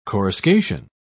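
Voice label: "coruscation", British English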